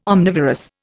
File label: "omnivorous", Indian